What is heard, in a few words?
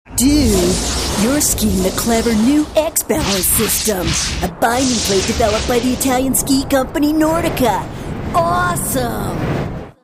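US English, Werbung Frech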